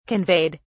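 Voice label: "conveyed", British English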